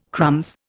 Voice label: "crumbs", Indian